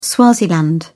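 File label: "swaziland", British English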